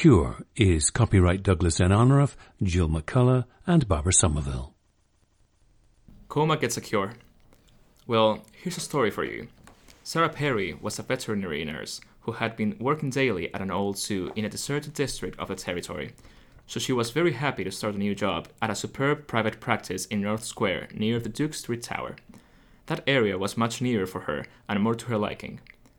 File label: spain